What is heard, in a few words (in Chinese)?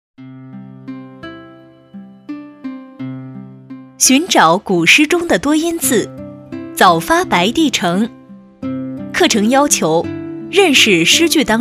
女 微课 少儿诗词